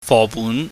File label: "fobun", Cantonese